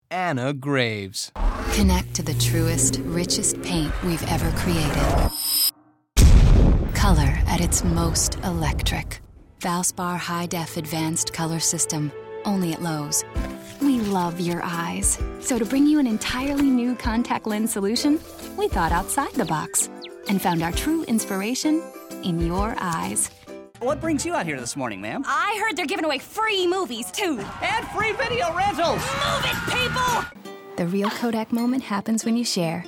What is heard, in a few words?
commercial